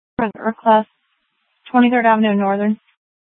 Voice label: Fire, scanner; Regional Wireless Cooperative (RWC) - Thompson Peak High; PHX Fire